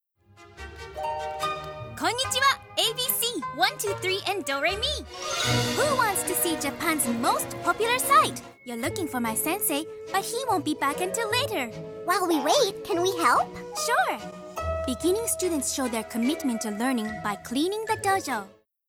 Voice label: english animation; japanese voice actors